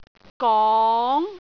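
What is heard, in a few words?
"gong2", Cantonese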